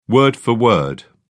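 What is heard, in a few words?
"word for word", British English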